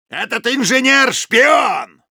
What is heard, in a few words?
Soldier, ru; «Этот инженер — шпион!»; cloaked spy identify; голосовых команд солдата